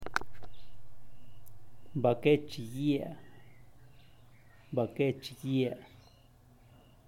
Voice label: bakech gieʼ, zapoteco teotitlan